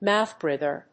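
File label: "mouth breather", English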